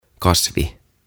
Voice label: "Kasvi" Plant

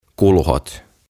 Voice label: "Kulhot" "Bowls"

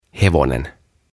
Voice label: "Hevonen", "Horse"

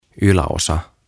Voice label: "Yläosa" "Top"; OTAVA KONSERNI

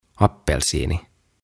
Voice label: "Appelsiini" "Orange"

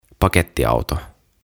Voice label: "Pakettiauto" "Van"; OTAVA KONSERNI

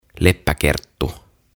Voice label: "Leppäkerttu" "Ladybug"

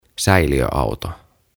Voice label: "Säiliöauto" "Tanker"; OTAVA KONSERNI

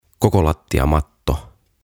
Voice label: "Kokolattiamatto" Carpet; OTAVA KONSERNI

